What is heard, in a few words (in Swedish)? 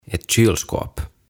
"Ett Kylskåp", "Jääkaappi"